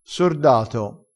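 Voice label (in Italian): "sordato", vocabolario dialettale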